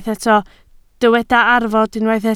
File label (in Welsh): "arfod", Welsh